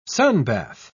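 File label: "sunbath", British English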